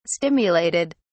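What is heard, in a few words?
"stimulated", British English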